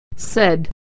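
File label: "said", American English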